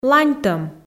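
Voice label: "ланьтӧм", Komi, female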